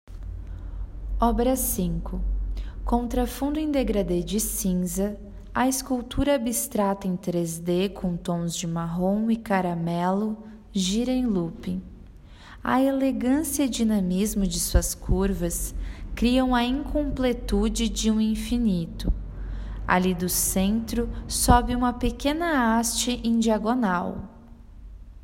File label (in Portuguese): Audiodescricao; Exposição; Galeria Virtual